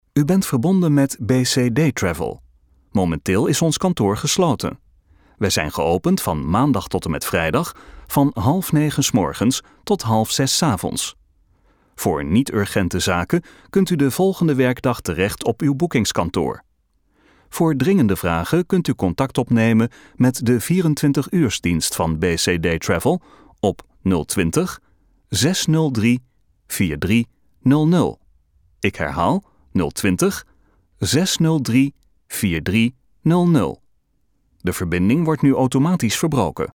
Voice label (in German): niederlaendisch telefon; sprecher